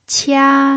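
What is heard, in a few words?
"qia", pinyin, tone 1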